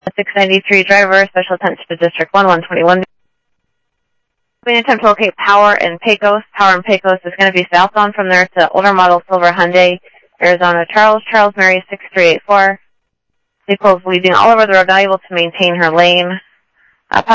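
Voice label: Sheriff East County, scanner audio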